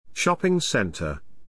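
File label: "shopping centre", English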